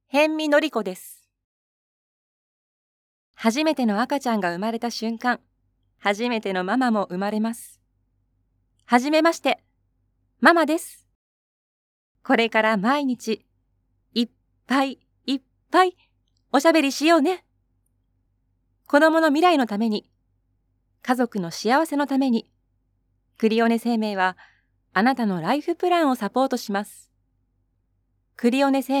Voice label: narration